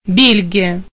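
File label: belgium, Spanish; gcompris-data; geography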